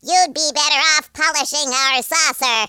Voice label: coward, speech, Alien; Worms3D